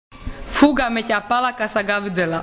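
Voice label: "fuga", Croatian dialect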